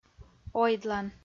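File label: "ойдлан" Komi-Zyrian, female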